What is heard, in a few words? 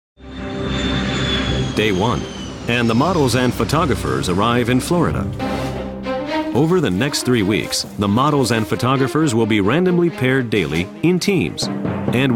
english american tv shows